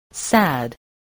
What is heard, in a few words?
"sad", English spelling